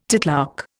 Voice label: "titlark", Indian